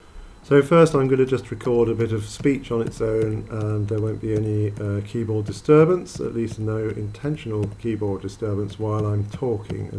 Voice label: voicemic voice only aaaaaaggggggkkkkkkk